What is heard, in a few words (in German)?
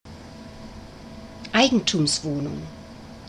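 "eigentumswohnung", German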